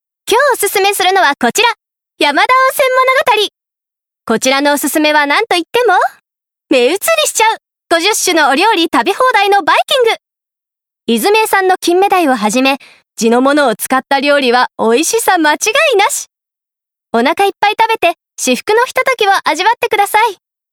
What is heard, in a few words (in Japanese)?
店舗CM はつらつ